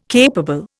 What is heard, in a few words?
"capable", Indian